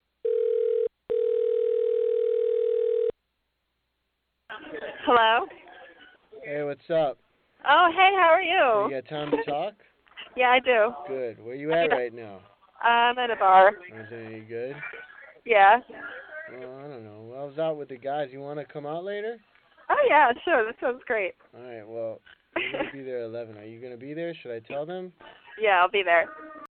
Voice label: girl